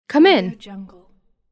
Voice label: "come in", female voice